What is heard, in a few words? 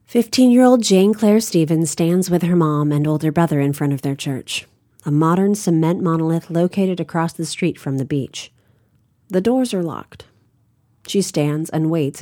Audiobook